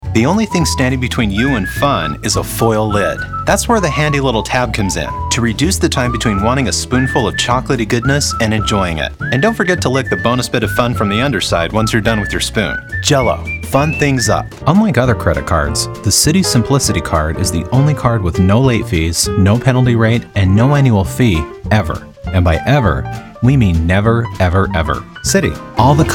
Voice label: Commercial Voice

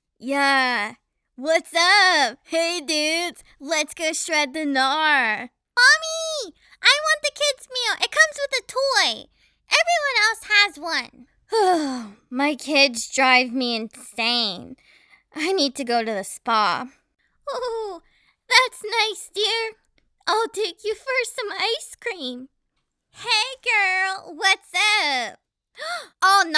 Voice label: Character Accents

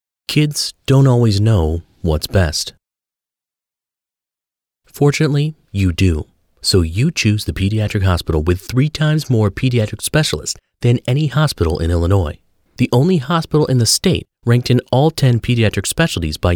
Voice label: Radio Commercial

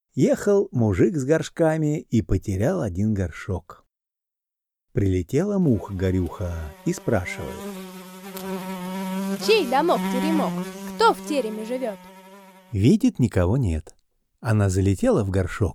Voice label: Animation sample with kids

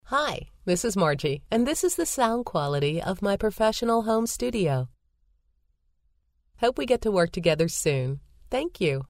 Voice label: Studio Quality

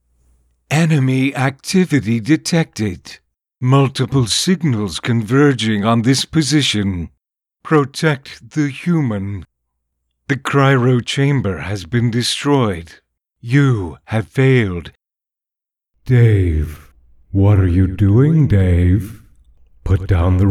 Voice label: Robot Voices

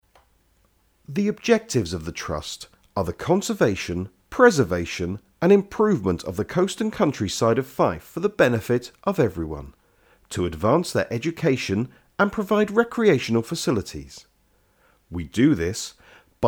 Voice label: Corporate voiceover

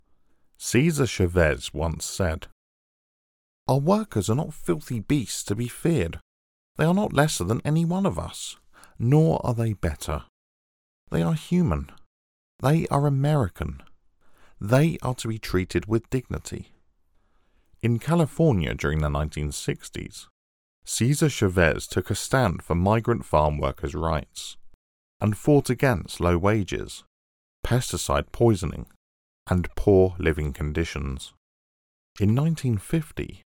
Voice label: documentary

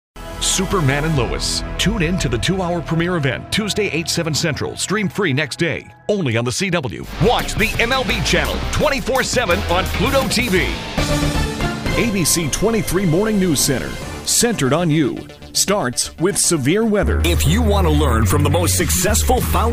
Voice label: Promo

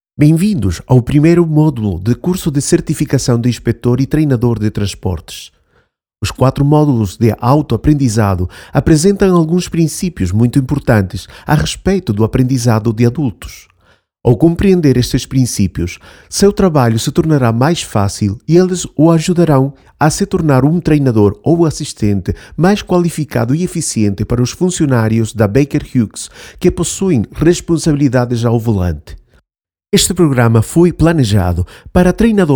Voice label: European PT

